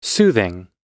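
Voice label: "soothing", American English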